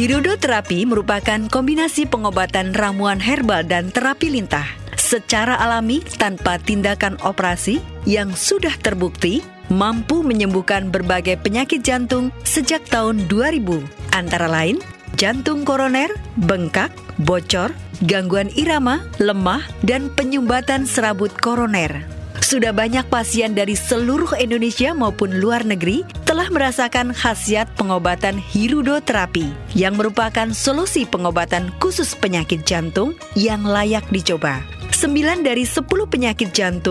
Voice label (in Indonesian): Contoh Pasang Iklan Radio Klinik Iklan Spot; Hirudo